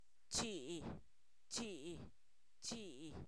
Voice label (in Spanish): diez, Zapoteco, san miguel albarradas; numeros